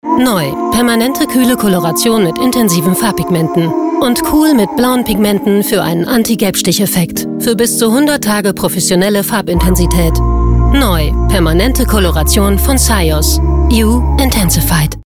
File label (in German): Demo Werbung Layout